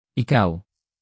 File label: "ang", tagalog pronouns